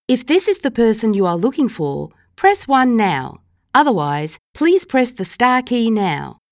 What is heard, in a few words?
australian english Agent Confirmation